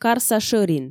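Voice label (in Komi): карса шӧрин, Komi, female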